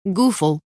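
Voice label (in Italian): "gufo", Italian word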